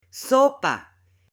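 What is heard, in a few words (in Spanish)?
"SOPA", Spanish; ALIMENTOS; AppLenguaje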